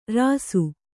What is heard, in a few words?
"ರಾಸಿ", Kannada